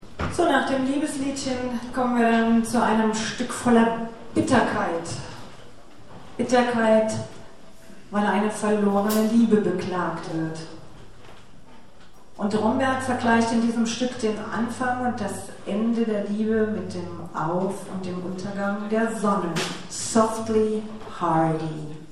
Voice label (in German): Ansage; Konzert 22. Hermeskeiler Kulturherbst (Johanneshaus, Hermeskeil)